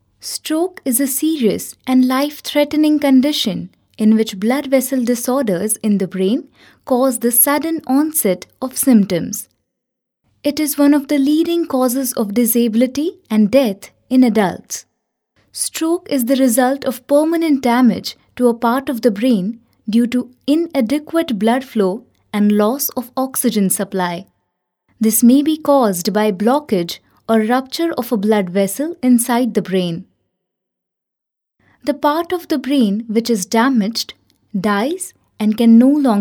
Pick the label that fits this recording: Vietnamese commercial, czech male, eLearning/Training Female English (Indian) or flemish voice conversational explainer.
eLearning/Training Female English (Indian)